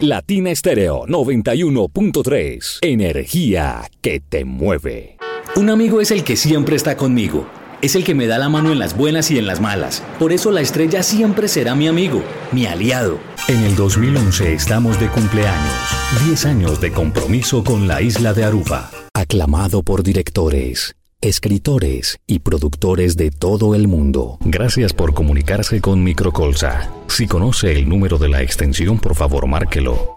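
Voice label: Spanish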